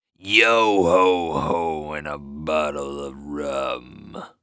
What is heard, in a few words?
Human Pirate Say Yo Ho Ho And A Bottle Of Rum